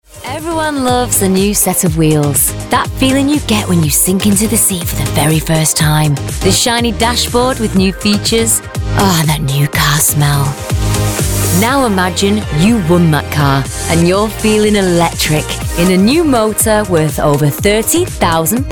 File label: COMMERCIAL; AUTO TRADER